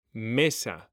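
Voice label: "mesa", Spanish, male; mekanta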